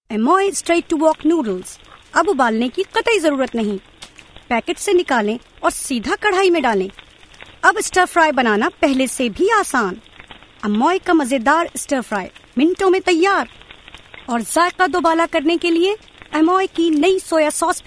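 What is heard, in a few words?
Urdu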